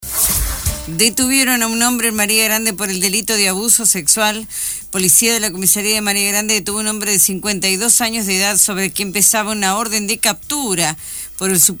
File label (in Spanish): informativo; policiales; maria grande